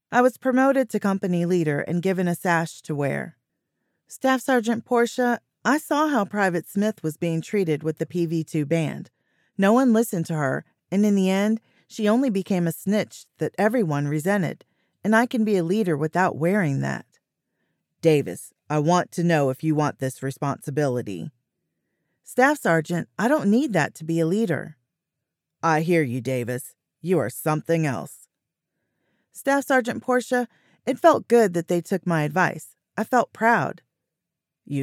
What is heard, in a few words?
M F Dialogue